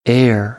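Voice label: "air", American English; AmericanBritish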